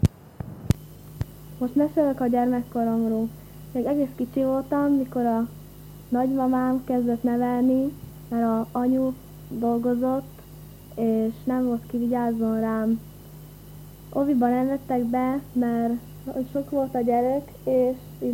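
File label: dialect collections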